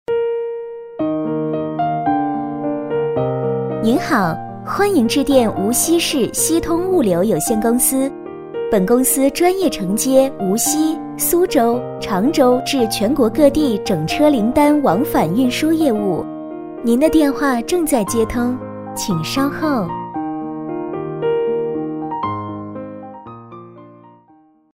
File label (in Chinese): 彩铃 甜美流畅; 锡通物流